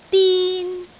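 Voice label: "din1", Cantonese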